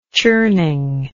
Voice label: "churning", English